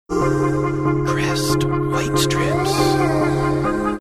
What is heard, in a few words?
saying crest white strips over trap music